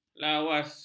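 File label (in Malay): "lawas", Malay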